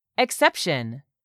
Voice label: "EXCEPTION", english; 3000 words